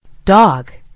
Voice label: "dog", en GB; gcompris